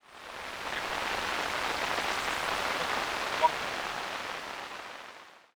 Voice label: Radio; zps; Humans; Radio Emit